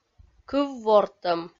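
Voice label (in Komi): "кыв-вортӧм", Komi, female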